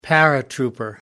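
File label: "paratrooper", British English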